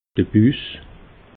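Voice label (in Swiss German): "bus", Alsatian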